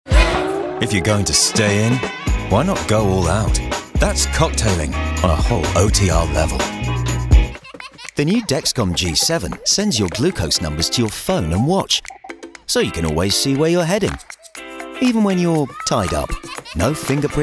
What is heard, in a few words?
commercial, voiceover; commercial reel